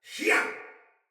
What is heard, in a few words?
Attack Soldier Male; Battle; Barks; Dialog; Sinecure Soldier Male A